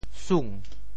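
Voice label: "sung2", Teochew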